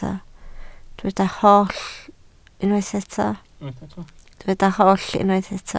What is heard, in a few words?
"holl", Welsh